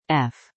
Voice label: F female